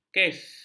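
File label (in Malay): "kes", Malay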